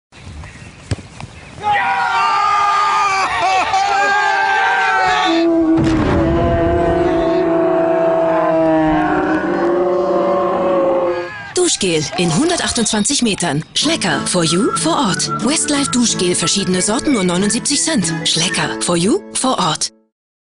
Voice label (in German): Werbespot; Schlecker; Fussball